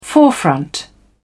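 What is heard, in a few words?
"forefront", en, uk British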